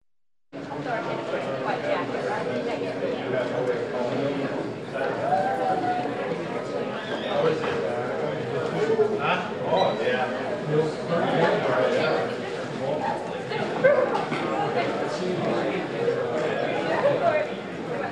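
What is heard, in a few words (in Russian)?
объявления по громкоговорителю в больнице на фоне голоса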